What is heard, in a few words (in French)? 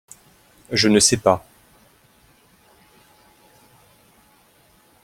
je ne sais pas, pronunciation fr